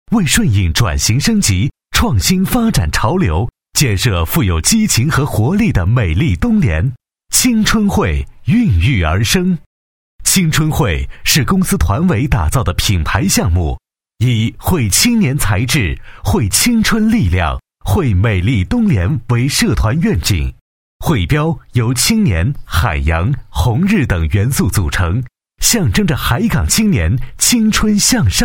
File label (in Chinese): 男 专题 活力 年轻 阳光 激情, 国语男声配音; 青春汇项目